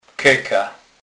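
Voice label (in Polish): "keka", Kurpie dialect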